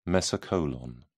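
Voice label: "mesocolon", British English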